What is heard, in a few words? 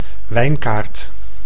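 "wijnkaart", Dutch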